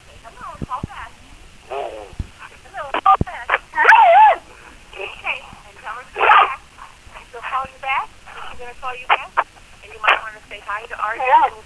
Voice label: Phone Message